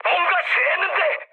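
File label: Korean tank damaged mood high; speech; War Thunder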